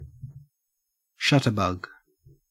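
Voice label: "shutterbug", Australian English; pronunciations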